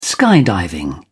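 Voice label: "skydiving", British English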